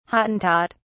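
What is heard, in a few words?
"hottentot", British English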